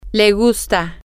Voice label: you like, spanish, F

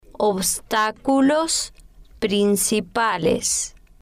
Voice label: "obstaculos Principales", spanish

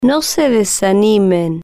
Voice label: "no Se Desanimen", spanish

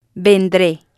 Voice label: "vendre", spanish

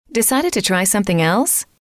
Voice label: decided to try something else, F

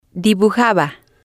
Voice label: "dibujaba", spanish

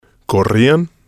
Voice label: "corrian", spanish

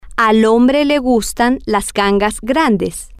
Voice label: al Hombre Le Gustan Las Gangas Grandes, Spanish

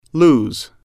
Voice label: "lose", English

